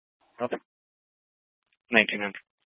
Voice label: Grand Junction Fire Department Dispatch; scanner